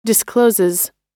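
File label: "discloses", US English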